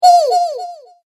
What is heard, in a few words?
Cartoon Game Voice "Eeee" With Delay